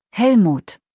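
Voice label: "Helmut", German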